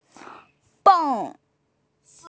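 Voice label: "beng4" Chinese, female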